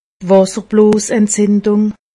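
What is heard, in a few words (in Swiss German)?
"wasserblosentzindung", Alsatian; medecine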